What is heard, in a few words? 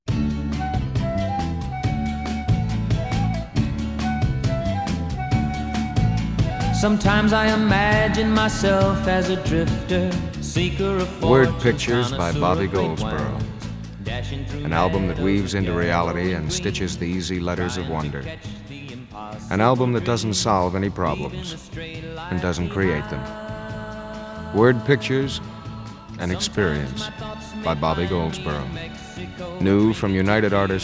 Radio Commercials; Word Pictures